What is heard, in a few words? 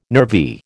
"nervy", American